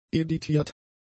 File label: "editiert", german; adjectives